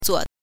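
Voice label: "zuo", Mandarin Chinese syllable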